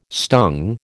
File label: "stung", American